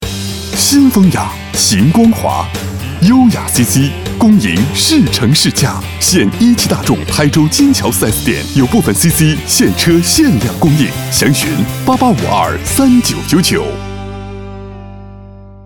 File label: boy car, Chinese